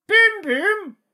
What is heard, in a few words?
battle chatter